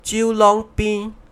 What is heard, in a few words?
"cheang wan seng place", hokkien; singapore